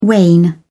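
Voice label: "wane", English, British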